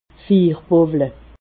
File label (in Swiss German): "fiirbowle", Alsace